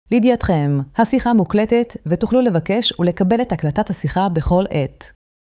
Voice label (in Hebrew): notice sentence female; voiceovers